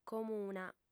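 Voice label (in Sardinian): "comuna", female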